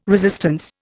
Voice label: "resistance", Indian

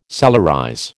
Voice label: "solarize", American

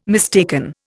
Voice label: "mistaken", Indian

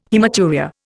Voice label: "haematuria", Indian

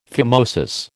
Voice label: "phimosis", American

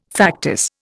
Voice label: "factice", Indian

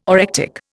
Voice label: "orectic", Indian

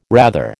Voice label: "rather", American

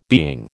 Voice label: "being", American

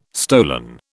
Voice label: "stolen", British

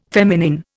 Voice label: "feminine", Indian

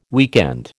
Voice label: "weekend", American

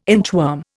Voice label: "inchworm", Indian

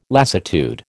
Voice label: "lassitude", American

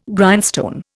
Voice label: "Grindstone", Indian

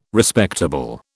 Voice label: "Respectable", British

